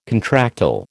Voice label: "contractile", American